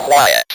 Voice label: "quiet", Speak & Spell

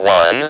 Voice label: "ONE", Speak & Spell

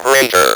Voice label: "GREATER", Speak & Spell

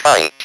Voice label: PINT, Speak & Spell

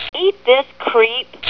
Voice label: Battle Girl, "eat this creep"

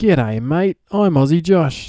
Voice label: Aussie greet

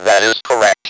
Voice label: THAT IS CORRECT, Speak & Spell

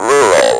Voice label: "rural", Speak & Spell

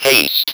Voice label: "haste", Speak & Spell

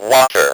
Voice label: "water", Speak & Spell

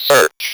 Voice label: "search", Speak & Spell

